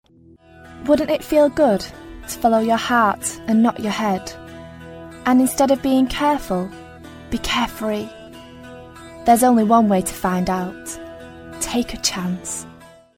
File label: Commercial; Take a chance; Vocal Point